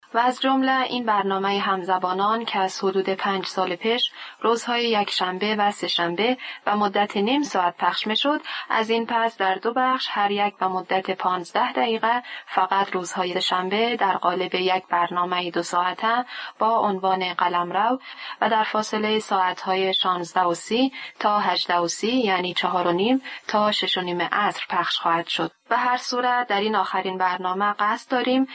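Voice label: Dari Afghanistan female narrative; dari voice over talent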